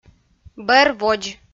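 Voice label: "бӧр-водз" Komi, female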